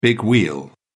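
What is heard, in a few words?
"big wheel", English, British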